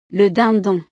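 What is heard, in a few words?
"le dindon", French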